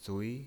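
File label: "zui", Mandarin Chinese, tone 2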